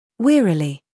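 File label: "humor", British; wearily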